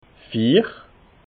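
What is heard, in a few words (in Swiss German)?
"vier", Alsatian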